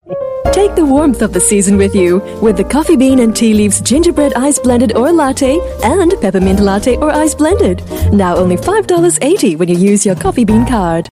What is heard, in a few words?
Asian English; Coffee Bean